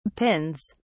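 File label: "pins", British English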